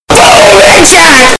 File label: boom headshot earrape